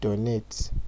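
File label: "donate", Nigerian Pidgin, male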